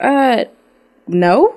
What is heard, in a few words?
"uh no" drag, Voice; expletives